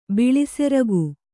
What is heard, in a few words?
"ಬಿಳಿಸೆರಗು", Kannada